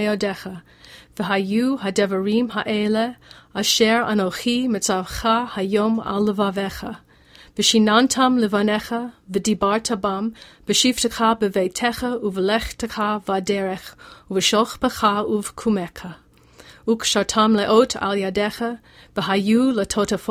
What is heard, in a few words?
V'ahavta Spoken; Cantor; bnai mitzvah study guide friday evening